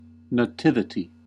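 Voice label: "nativity", English, American accent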